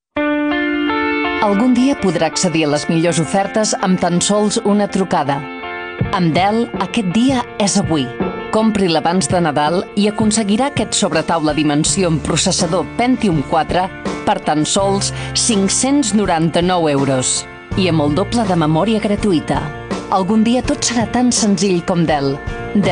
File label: catalan